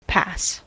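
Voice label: "pass", US English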